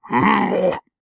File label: Amanita Angry